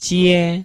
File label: "jie1", Chinese